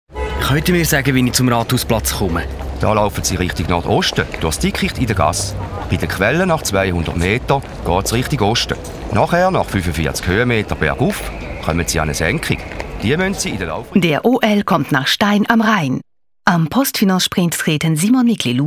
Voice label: radio spot